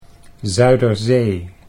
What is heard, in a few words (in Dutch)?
"Zuiderzee", Dutch